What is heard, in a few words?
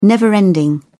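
"never-ending", British English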